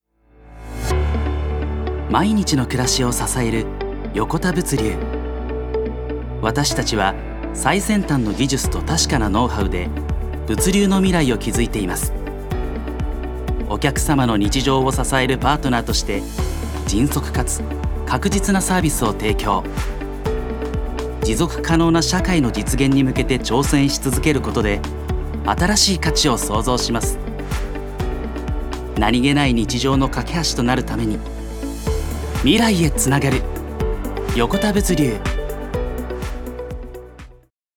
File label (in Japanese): ナレーション, 男性